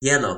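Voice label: "jelo", Toki Pona; lipu linku; kalama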